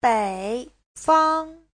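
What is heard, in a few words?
"北方", Chinese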